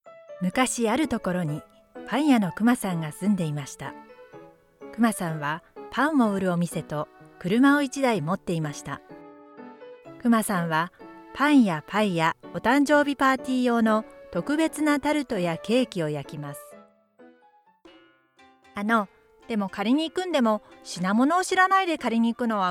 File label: japanese audiobooks